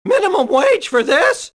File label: squeaky voice teen